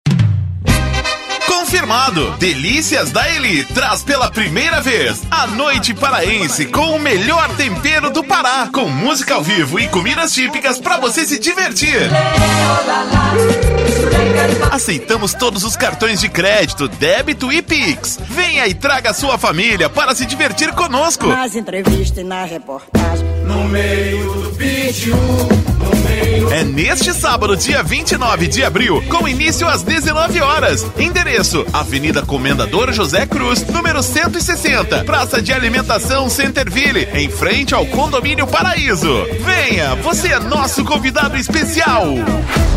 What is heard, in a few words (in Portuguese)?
locutor, chamadas; NOITE PARAENSE